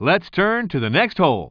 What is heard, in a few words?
commentator